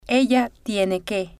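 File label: "ella Tiene Que", Spanish, female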